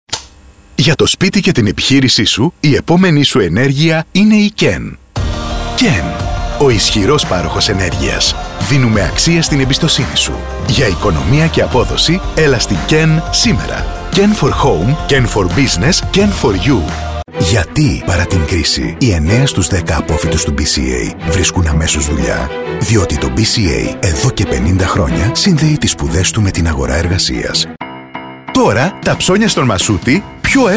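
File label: Greek, male, commercial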